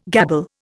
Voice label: "gabble", Indian

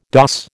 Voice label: "doss", American

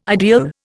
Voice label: "ideal", Indian

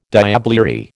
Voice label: "diablerie", American

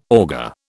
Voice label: "auger", British

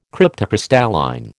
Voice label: "cryptocrystalline", American

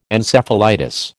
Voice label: "Encephalitis", American

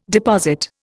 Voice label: "deposit", Indian

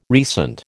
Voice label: "recent", American

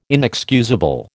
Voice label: "inexcusable", American

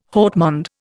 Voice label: "haut monde", Indian

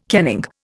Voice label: "kenning", Indian

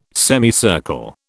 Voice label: "semicircle", British

